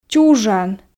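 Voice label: "чужан", Komi, female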